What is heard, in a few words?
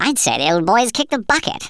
"fatality", speech; Worms Armageddon